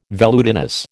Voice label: "velutinous", American

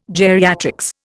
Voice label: "geriatrics", Indian

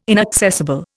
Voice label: "inaccessible", Indian

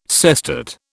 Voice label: "sestet", British

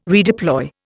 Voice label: "redeploy", Indian

